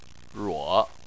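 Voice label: "ruo" tone 3, Chinese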